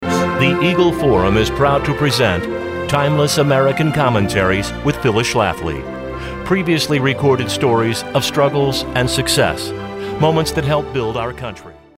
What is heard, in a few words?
Audio Book Narration